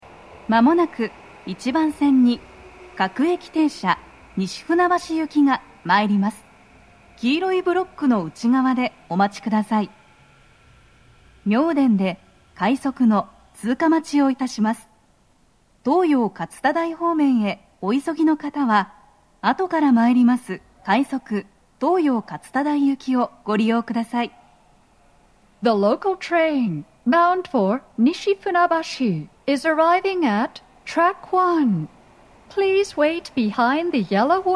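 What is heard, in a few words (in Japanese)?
接近放送, 女声, 待合室, 放送は「各駅停車 西船橋行き」です。妙典で、快速 東葉勝田台行きの通過待ちを致します。; TOA弦型での収録です。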